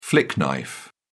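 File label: "flick knife", British English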